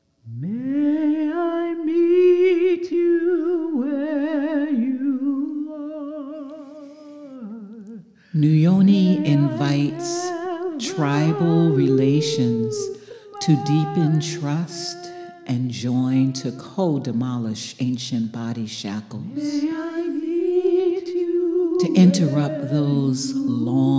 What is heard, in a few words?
VOICE OVER; NUYONI VISION